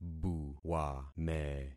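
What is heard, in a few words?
"buwame", concatenated syllables; Affixing experiment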